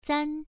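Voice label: "zan1", Chinese pronunciation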